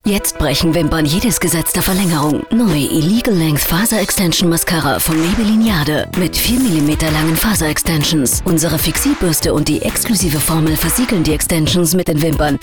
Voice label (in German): Werbung Dynamisch